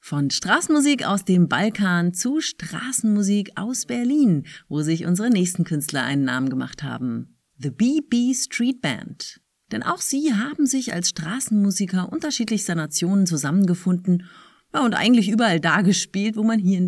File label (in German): Moderation